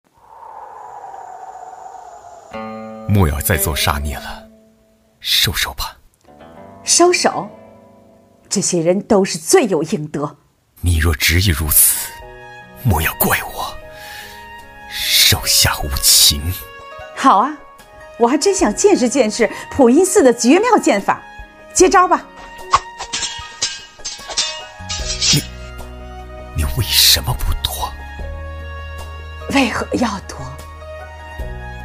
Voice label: 古风江湖哭腔挑战配音

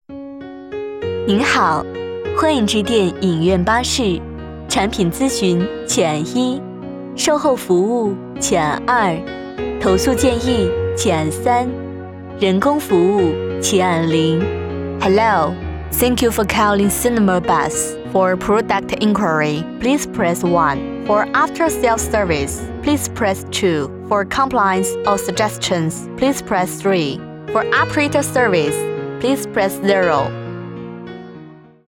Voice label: 彩铃中英彩铃温柔

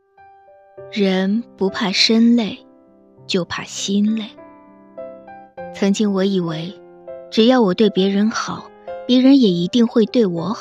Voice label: 朗诵练习; 不怕身累就怕心累

